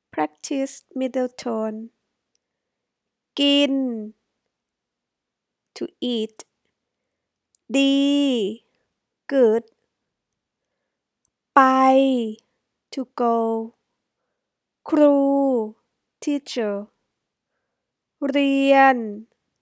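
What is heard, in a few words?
mid tone, Thai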